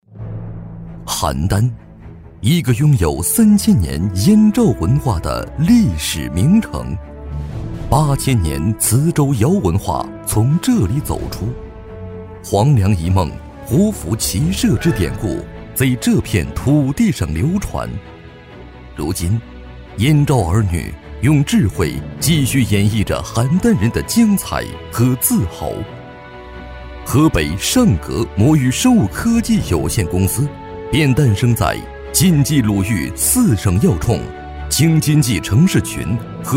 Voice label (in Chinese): 男 专题 企业宣传